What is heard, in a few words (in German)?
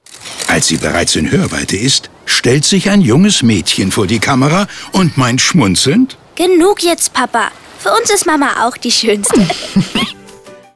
Spot, maedchen; Limonade